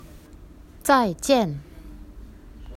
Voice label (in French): chinois au revoir